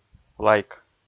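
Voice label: "лайк", Komi, male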